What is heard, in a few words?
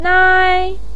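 "nai" first tone, Mandarin Chinese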